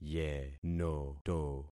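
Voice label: "yenoto", concatenated syllables; Affixing experiment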